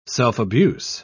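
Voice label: "self-abuse", British English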